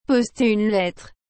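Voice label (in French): "poster une lettre", French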